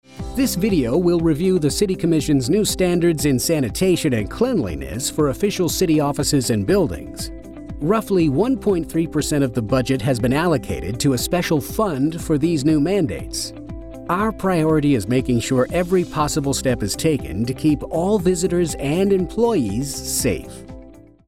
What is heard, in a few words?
Explainer video Narration; City Commission